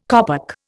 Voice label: "kopek", Indian